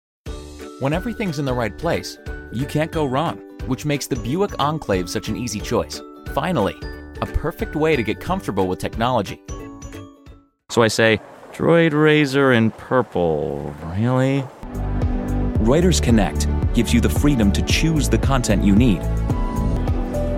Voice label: men commercial; Hip millennial. Warm and enthusiastic. Pragmatic and bold, with a Tech-feel. Your buddy, or a calm voice of reason.

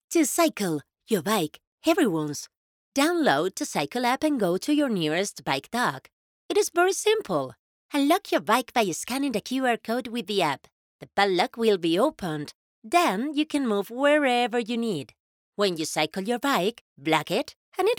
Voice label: spanish accented english; European Spanish Speaker. She's a professional singer with a dynamic, jovial and versatile speaking voice.

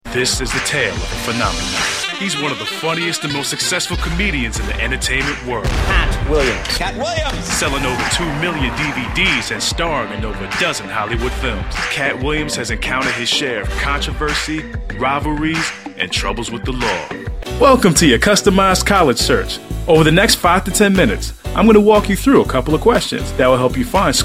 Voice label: men narration

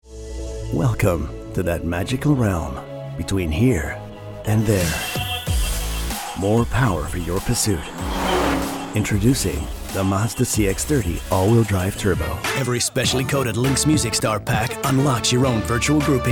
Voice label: men commercial

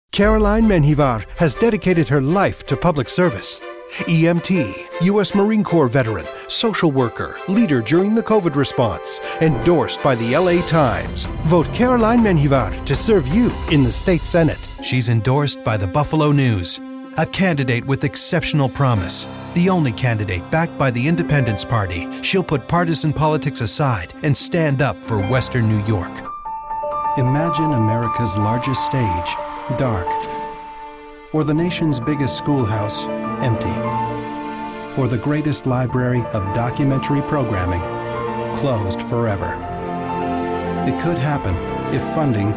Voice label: men political

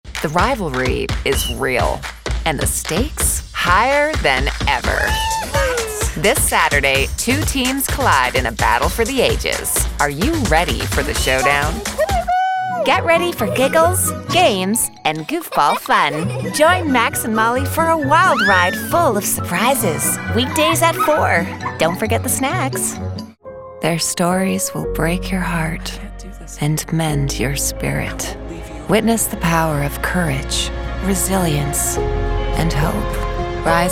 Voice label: women promos; Dynamic, down to earth, cool conversational gal.

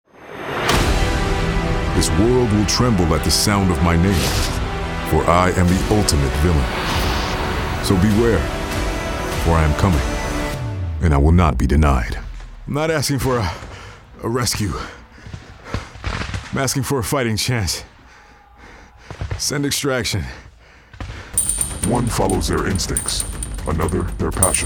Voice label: men animation; Warm, trustworthy, and sophisticated.

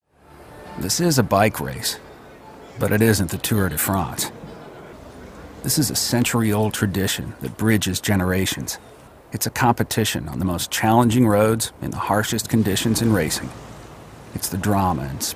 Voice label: men narration

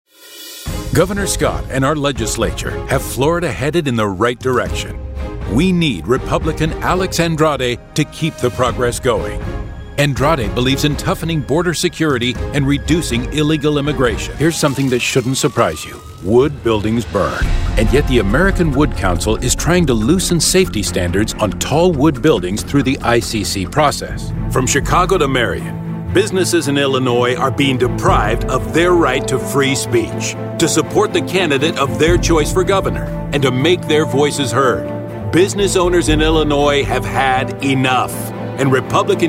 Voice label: men political

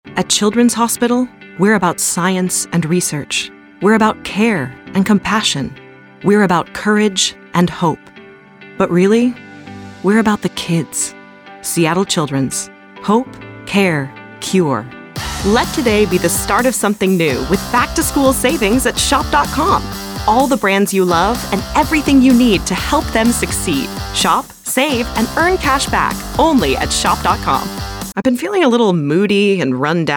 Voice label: women commercial; Warm, authoritative, and grounded. Your therapist's therapist, your boss's boss, the young mom next door.

